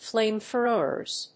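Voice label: "Flamethrowers", English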